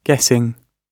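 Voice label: "guessing", British English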